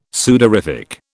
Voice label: "sudorific", British

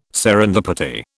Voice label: "serendipity", British

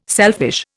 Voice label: "selfish", Indian

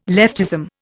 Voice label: "leftism", Indian

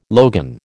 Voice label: "logan", American

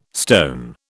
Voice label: "stone", British

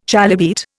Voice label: "chalybeate", Indian

